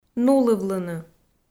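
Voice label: "нулывлыны", Komi, female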